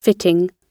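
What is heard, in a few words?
"fitting", British English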